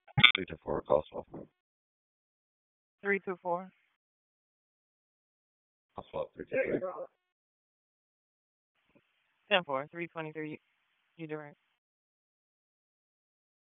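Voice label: Police Northeast District Dispatch; Raleigh; wake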